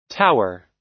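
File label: "tower", US English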